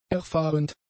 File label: "erfahrend", German; adjectives